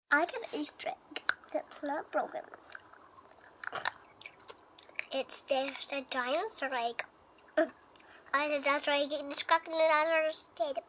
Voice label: Eating Mumbling, 2 young children eating and talking, very cute; Kids; human voice speaking; VoiceBox